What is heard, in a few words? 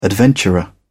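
"adventurer", British English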